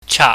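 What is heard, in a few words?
"chaak", Cantonese, mid level tone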